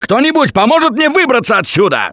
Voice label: call for rescue, russian; Gambler